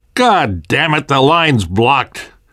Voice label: world hospital, namvet, survivor voice